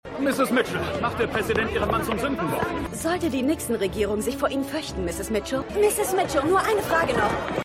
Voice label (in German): Reporter Reporterin; Gaslit